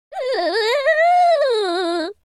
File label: scared; speech; Spanish